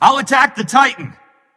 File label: vox self attack; smite; ra; voicepack